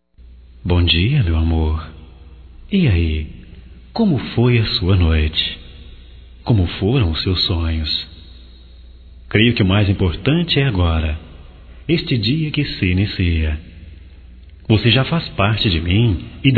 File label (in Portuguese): BOM DIA ROMÂNTICO, masc; Bom dia, tarde e noite; Telemensagens 2017